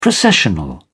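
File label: "processional", English, British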